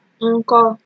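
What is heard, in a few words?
"nko", Nigerian Pidgin, female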